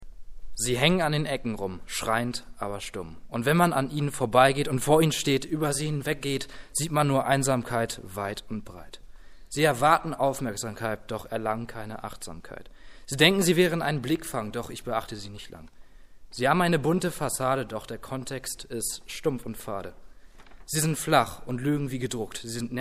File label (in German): Poetry Slam; wer sind sie